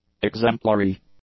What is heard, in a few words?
"exemplary", english; adjectives